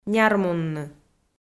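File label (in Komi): "нярмунны", Komi, female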